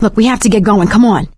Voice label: revive friend loud, survivor voice; source engine; left 4 dead 2; producer